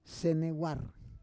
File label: "senewar", Roon